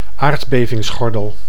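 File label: "aardbevingsgordel", Dutch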